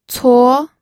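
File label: "cuo", pinyin, second tone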